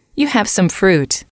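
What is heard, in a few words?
you have some fruit, female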